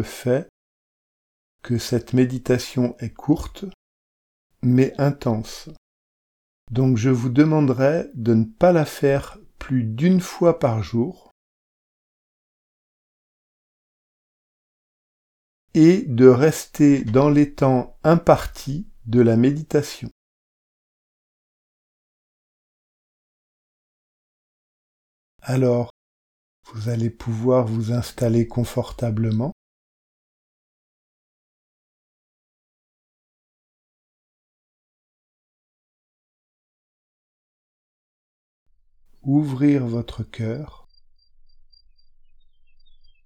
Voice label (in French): meditation nettoyage des chakras avec oiseaux; bonus calmer son mental